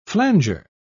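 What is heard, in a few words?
"flanger", British English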